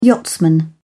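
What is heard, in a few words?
"yachtsman", British English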